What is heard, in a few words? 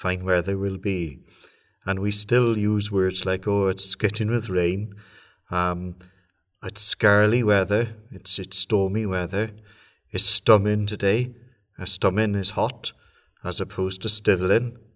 Local dialect, pembrokeshire; Local Dialects; Cleddau Rivers